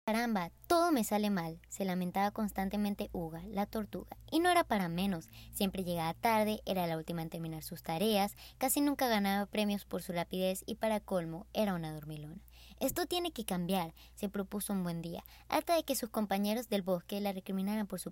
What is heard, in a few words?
Spanish (LA), Female